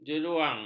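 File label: "jeruang", Malay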